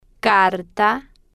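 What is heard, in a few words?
"carta", Spanish, female, slow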